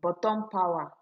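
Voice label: "bottom power", Nigerian Pidgin, female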